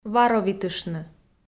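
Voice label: "варовитыштны" Komi, female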